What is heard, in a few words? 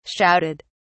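"shouted", British English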